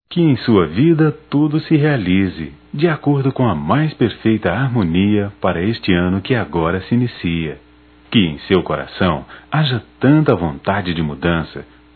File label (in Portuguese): Ano novo neutro m; Telemensagens 2017; Natal e ano novo